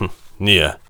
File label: warrior conversation emotion disagree